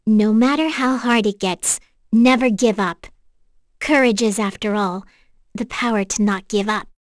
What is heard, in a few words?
vox, en; kingsraid; voices heroes